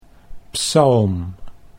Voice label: "psalm", Dutch

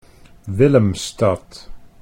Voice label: "Willemstad", Dutch

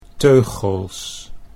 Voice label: "teugels", Dutch

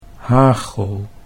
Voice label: "hagel", Dutch

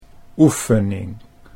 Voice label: "oefening", Dutch

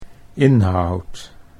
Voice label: "inhoud", Dutch

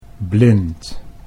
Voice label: "blind", Dutch

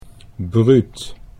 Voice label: "bruut", Dutch

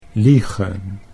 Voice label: "liegen", Dutch

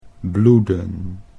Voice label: "bloeden", Dutch

